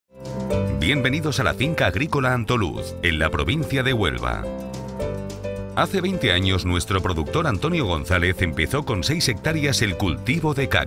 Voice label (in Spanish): Spanish Voice Over Corporativa